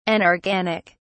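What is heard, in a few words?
"anorganic", British English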